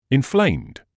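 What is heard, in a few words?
"inflamed", British English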